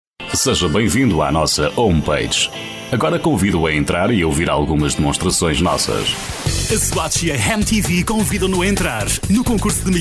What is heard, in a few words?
portuguese